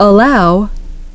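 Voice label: "allow", English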